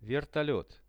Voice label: "vertolet", Russian; вертолёт; transport; RUSSIAN VISUAL DICTIONARY